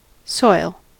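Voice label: "soil", American English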